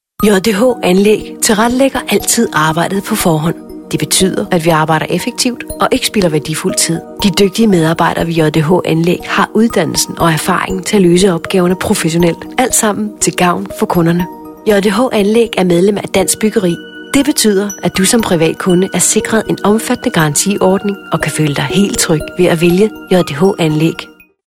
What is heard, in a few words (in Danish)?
Virksomhedsfilm